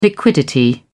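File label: "liquidity", British English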